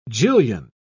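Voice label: "jillion", British English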